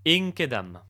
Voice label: male march, latin

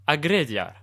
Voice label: male attack, latin

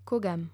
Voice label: gather, romans male

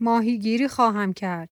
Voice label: female fish, persian

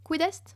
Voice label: what is it, female

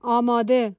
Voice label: civ female ready, persian

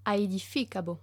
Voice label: female build, latin

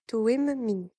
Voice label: female herd, voice napatan civ

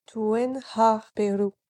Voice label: female garrison, voice napatan civ

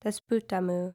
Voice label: female my lord; hellenes

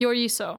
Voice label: female work land; hellenes

